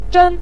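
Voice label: "zhen" neutral tone, Mandarin Chinese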